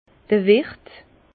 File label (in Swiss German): "wiert", Bas Rhin; vin; restaurateur